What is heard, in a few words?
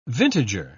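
"vintager", English, British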